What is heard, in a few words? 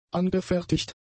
"angefertigt", German; adjectives